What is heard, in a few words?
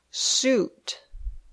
"suit", English